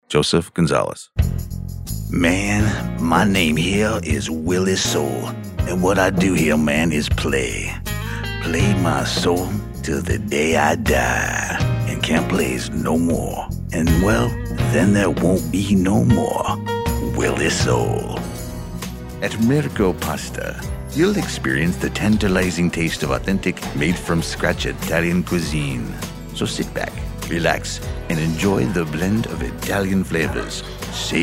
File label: Characters Reel